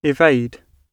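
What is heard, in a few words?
"evade", British English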